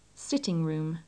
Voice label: sitting room, english